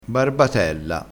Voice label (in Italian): "barbatella", crespinese